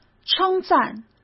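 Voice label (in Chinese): "称赞", Mandarin; chinese-flashcards; hsk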